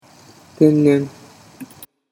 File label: "tennen", Japanese